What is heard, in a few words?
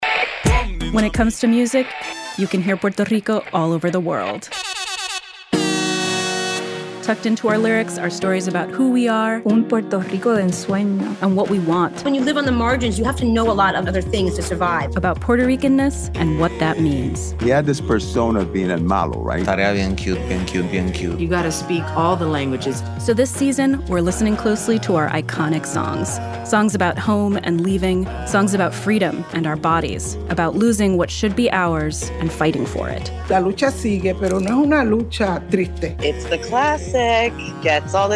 trailer english; La Brega